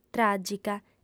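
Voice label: "tràgica", female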